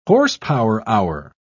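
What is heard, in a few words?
"horsepower-hour", British English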